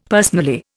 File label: "personally", Indian; Dictionary